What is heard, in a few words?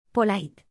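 "polite", English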